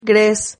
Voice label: "grez", Silabas

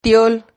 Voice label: "tiol", Silabas

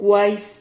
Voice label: "cuais", Silabas

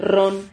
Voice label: "rron", Silabas

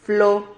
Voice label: "flo", Silabas

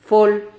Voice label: "fol", Silabas

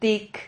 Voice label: "tic", Silabas

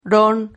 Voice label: "ron", silabas

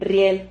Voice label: "rriel", Silabas

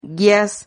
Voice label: "guias", Silabas

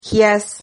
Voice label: "gias", Silabas

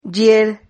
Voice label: "llier", Silabas

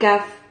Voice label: "caf", Silabas

